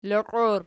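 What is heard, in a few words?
"liqi" plural, Archi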